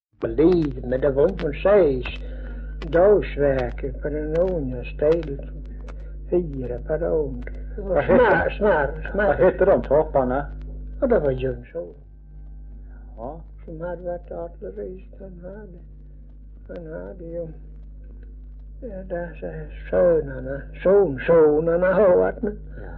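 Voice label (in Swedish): Örkened Skåne, Svenska sagor dialekter; Folkminnen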